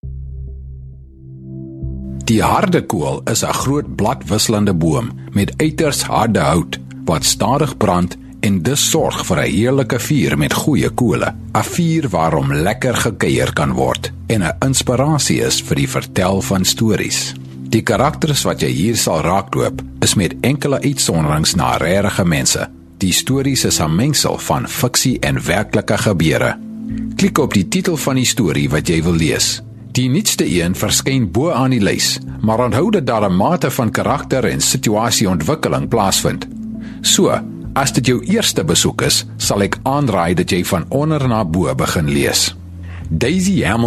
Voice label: south african male afrikaans, Rich Warm South African Voice; South African voice over sample